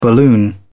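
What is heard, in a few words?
"balloon", British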